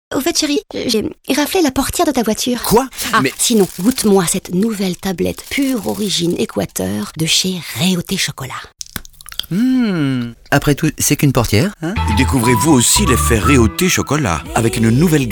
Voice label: SPOT; NOUVELLES TABLETTES

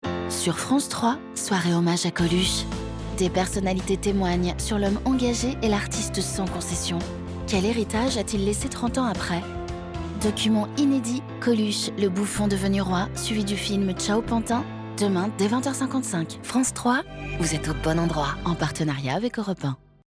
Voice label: Spot radio; COLUCHE

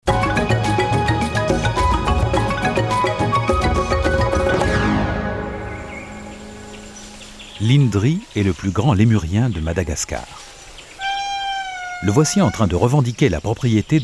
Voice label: Docu Animalier

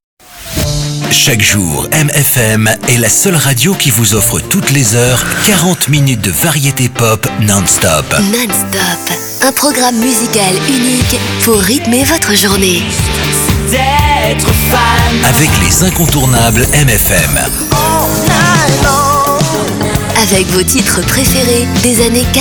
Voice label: habillage féminine complice; MFM

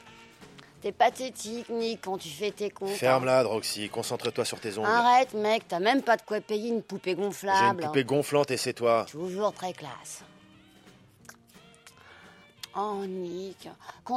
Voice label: Doublage; Trail Of The Screaming Forehead

